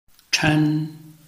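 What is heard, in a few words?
"chen", Chinese, tone 1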